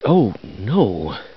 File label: "oh no", Voice